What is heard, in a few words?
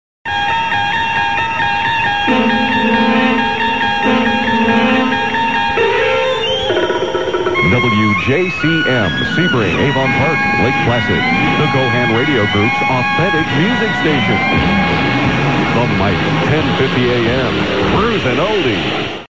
Station ID; WJCM